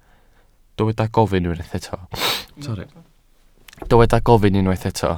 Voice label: "gofyn", Welsh